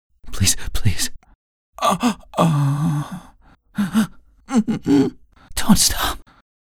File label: Male NPCs Sample